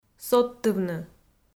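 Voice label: "содтывны", Komi, female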